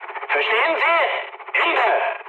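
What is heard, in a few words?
German chat, speech; War Thunder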